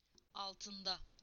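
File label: "under", Turkish; PictureDictionary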